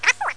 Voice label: "Rossiya", Russian